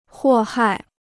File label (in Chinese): "祸害", Chinese